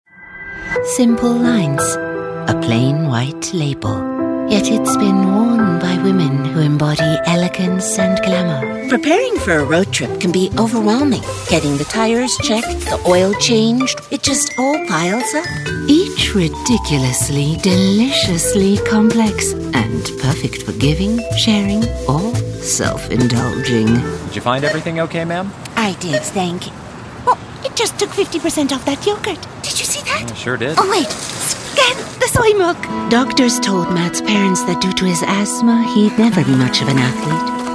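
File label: commercial english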